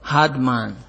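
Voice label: "hardman" Nigerian Pidgin, male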